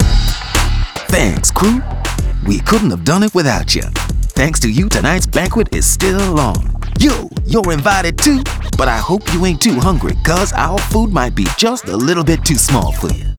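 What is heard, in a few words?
outro victory British; Arthur and the Revenge of Maltazard; Wii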